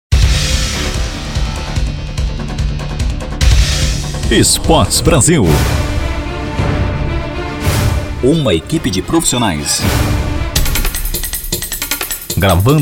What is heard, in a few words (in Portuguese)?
Spot Chamada